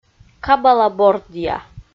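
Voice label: "кабала бордъя" Komi, female; kpv audio dict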